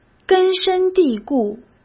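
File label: "根深蒂固", Mandarin Chinese; hsk; chinese-flashcards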